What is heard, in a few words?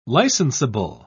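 "licensable", British English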